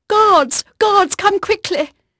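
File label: English female, voice; Pirates Of The Caribbean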